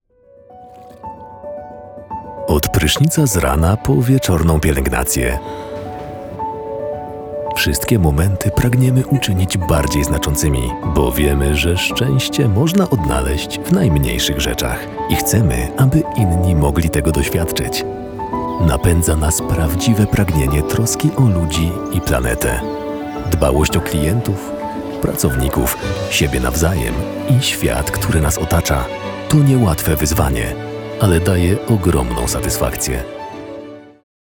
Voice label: polish promos

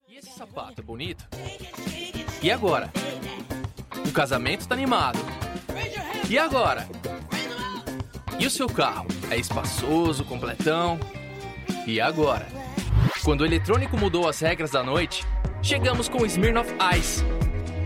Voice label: portuguese brazilian commercial; Portugiesisch (Brasilianisch)

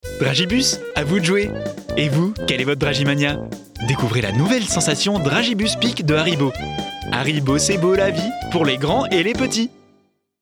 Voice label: french animation

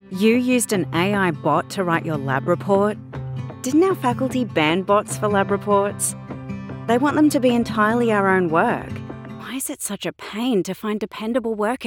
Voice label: english australian explainer videos

